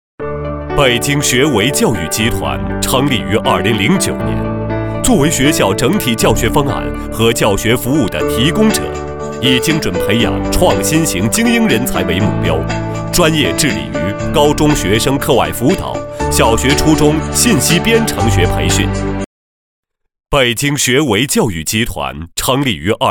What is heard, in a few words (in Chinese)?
男 专题 教育; 学为教育